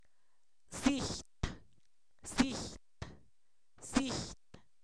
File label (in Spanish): lejos, Zapoteco, san miguel albarradas; adverbios